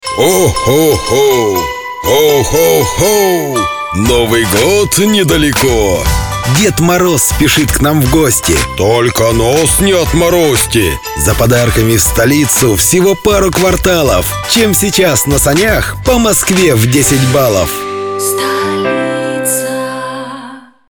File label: commercial